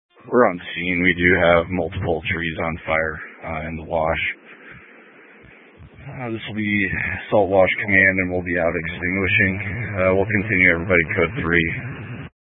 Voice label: Incident Tac 1, scanner

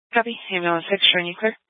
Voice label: Fire Dispatch, scanner

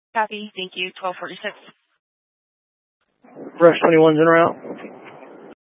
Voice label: Fire Dispatch

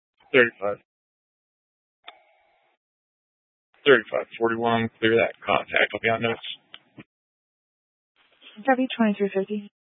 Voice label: Police Department Dispatch, scanner; Grand Junction

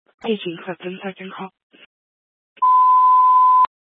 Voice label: Fire Dispatch, scanner

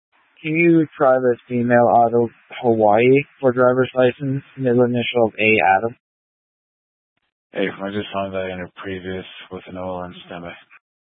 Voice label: Police Dispatch, scanner; Police 1 Dispatch

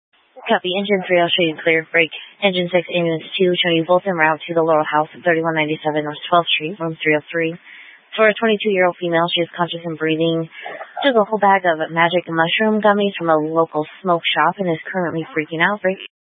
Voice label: Fire Dispatch

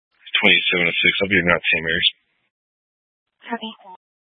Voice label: Mesa County Sheriff Dispatch; scanner

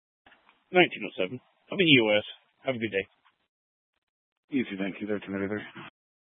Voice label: Police Department Dispatch, scanner

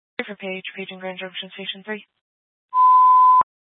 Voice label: Grand Junction Fire Department Dispatch

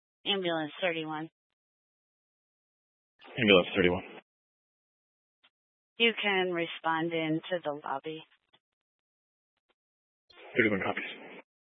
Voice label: Fire Department Dispatch, scanner; Grand Junction

